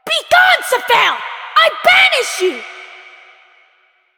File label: Child; Ostron; Warframe; Cetus Invasion; Villagers; en